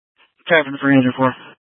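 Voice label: Incident Tac 1, Scanner audio recording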